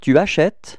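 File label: "acheter tu", French; frans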